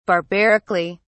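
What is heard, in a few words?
"barbarically", British English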